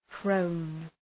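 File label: "prone", English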